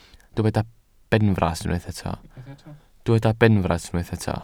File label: "benfras", Welsh